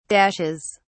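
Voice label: "dashes", English, British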